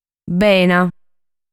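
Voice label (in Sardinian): "Bena", Sardinian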